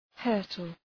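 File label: "hurtle", English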